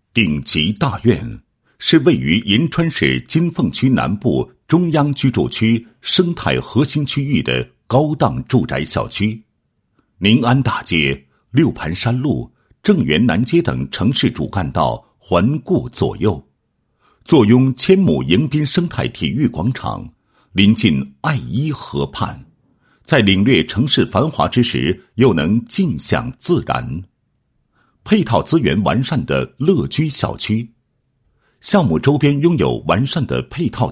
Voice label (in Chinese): 男 项目解说; 鼎极大院